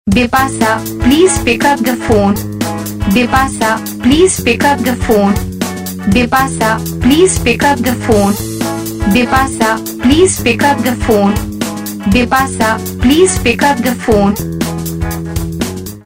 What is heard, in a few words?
pick up the phone saying, Ringtones